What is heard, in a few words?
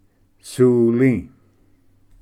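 "suli", Cherokee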